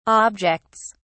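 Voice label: "objects", British English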